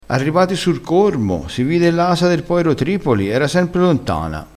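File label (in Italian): "cormo", vocabolario dialettale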